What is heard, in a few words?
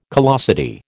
"callosity", American